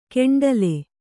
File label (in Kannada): "ಕೆಂಡಲೆ", Kannada